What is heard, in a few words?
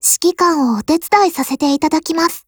DIALOGUE JP; Type100